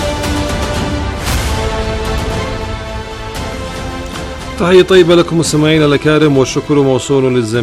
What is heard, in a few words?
Arabic